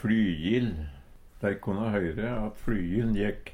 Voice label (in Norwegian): "fLyjil", Numedalsmål; Dei konna høyre at fLyjiln gjekk.